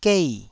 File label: "gei4", taiwanese syllable